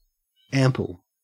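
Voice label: "ample", Australian English; pronunciations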